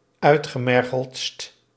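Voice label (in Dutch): "uitgemergeldst", Dutch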